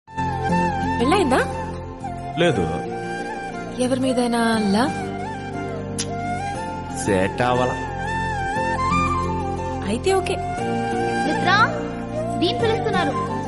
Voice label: Dialogue Telugu; Samantha; Vijay; Policeodu

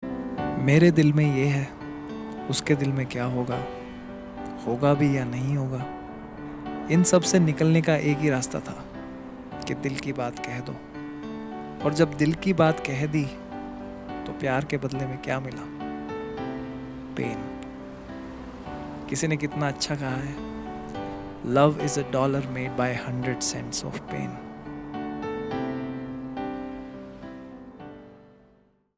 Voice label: Ratjage Dailog Version, Hindi

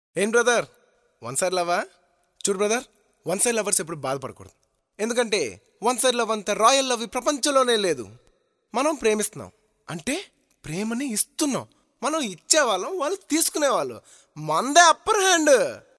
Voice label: One Side Love Voice Ringtone, Telugu; Arya

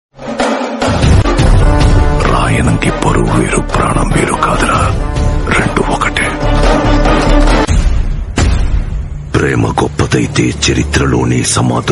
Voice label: Villain Dialogue Ringtone, Telugu; Uppena

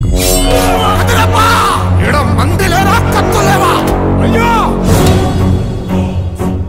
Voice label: Powerful Dialogue Ringtone, Telugu; Aravindha Sametha

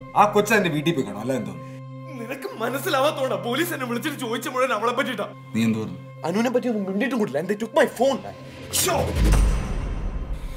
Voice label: Trailer Dialogue Ringtone, Malayalam; C U Soon; Fahadh Faasil

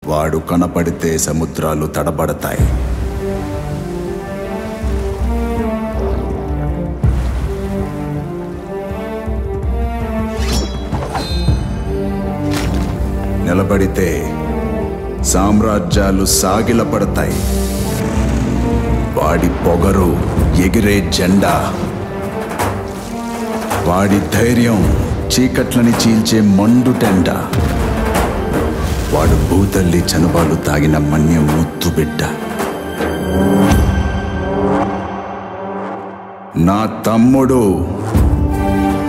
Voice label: Voice Over Dialogue Ringtone; Bheem Intro; RRR; Telugu

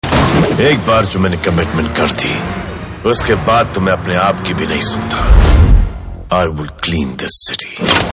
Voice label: Dialogue, Hindi; Radhe Trailer; Salman Khan; Commitment

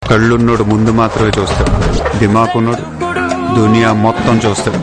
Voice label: Dialogue Ringtone, Telugu; Mahesh; Dookudu

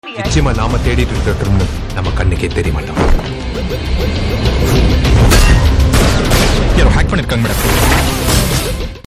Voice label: Dialogue Ringtone, Tamil; Chakra; Vishal

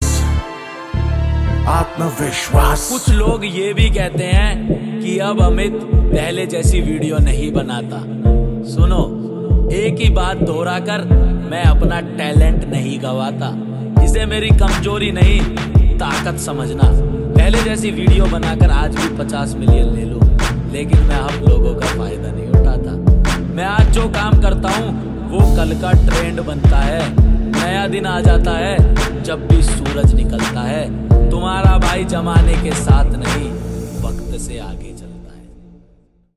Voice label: Dialogue, Hindi; Amit Bhadana

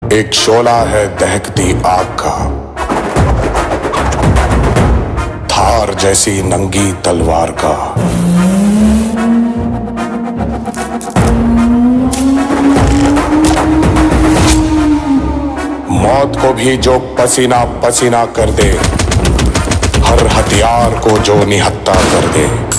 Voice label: Bheem For Ramaraju Hindi Dialogue; RRR; Jr. NTR

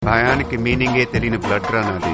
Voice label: Famous Dialogue Ringtone, Telugu; Dookudu

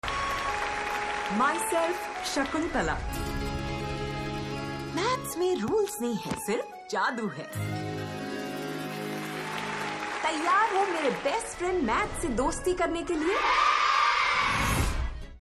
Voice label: Trailer Dialogue Ringtone, Hindi; Shakuntala Devi; Myself Shakuntala Devi

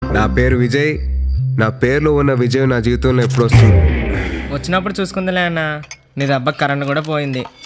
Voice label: Dialogue Ringtone, Telugu; Chitralahari; Na Peru Vijay

